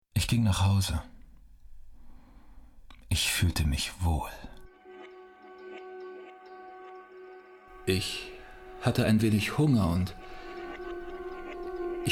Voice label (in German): Hoerspiel; WDR; Cousin